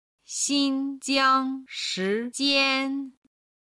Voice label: "xinjiangshijian", Chinese